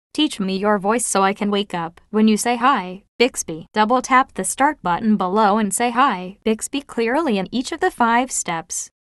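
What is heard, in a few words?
wake up ready, American English; Bixby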